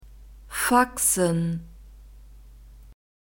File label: "faxen", German; alphabet